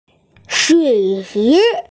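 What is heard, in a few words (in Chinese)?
"shui3 chi2" Mandarin Chinese, female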